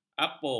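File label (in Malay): "apung", Malay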